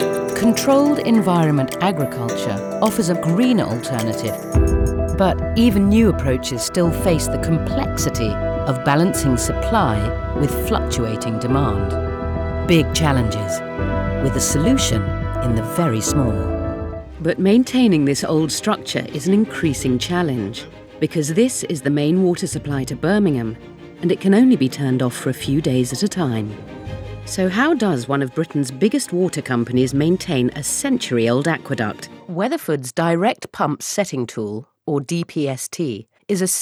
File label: corporate